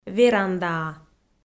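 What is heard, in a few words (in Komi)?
"верандаа", Komi, female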